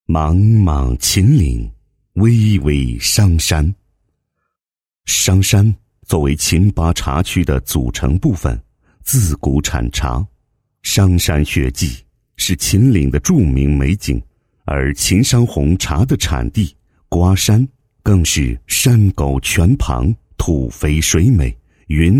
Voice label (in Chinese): 男 茶酒专题, 茶宣传; 漫漫商山路 秦商